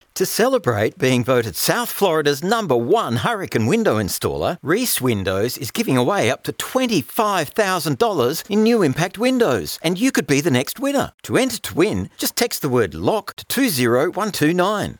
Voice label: High Energy Giveaway Advert, Radio Commercials, High Energy Retail Promotion, male